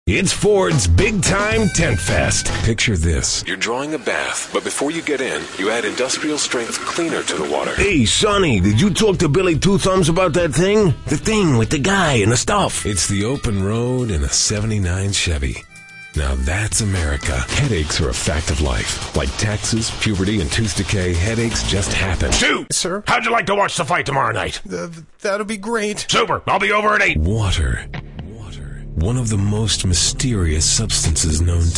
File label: male; Spotland Productions